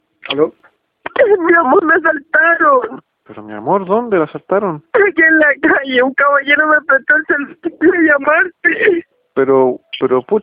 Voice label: estafas telefonicas llamada real

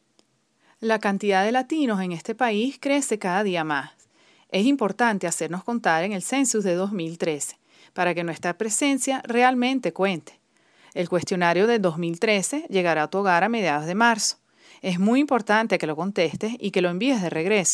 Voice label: acento venezolano

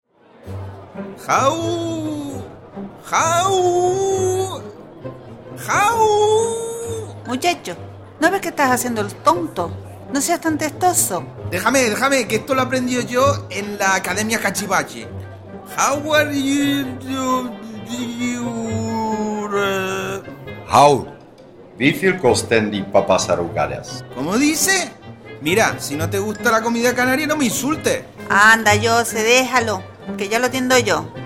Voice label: chiste muy bueno canario

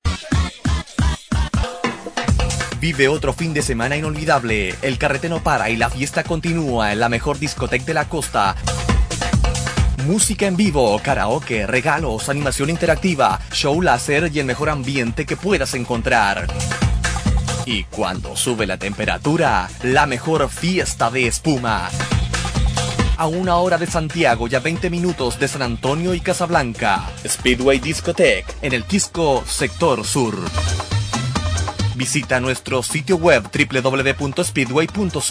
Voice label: publicidad; speedway discoteque

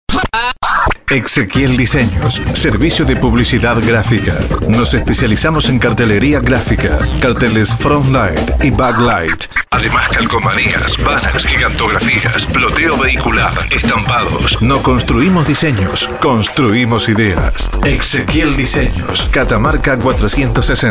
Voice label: spot publicitario voz

